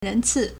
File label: "ren2 ci4", Chinese